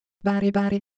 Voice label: Japanese Sound, ideophones

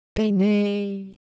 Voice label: Ewe Shape, ideophones

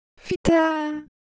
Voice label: Ewe, ideophones; Color Visual

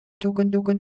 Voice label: Korean, ideophones; Motion

